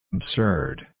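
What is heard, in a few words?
"absurd", USA, male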